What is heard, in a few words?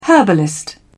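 "herbalist", British English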